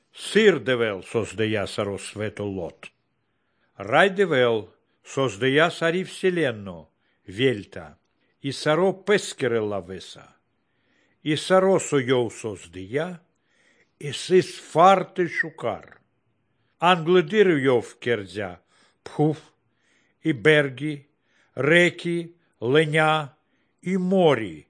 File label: good news baltic romani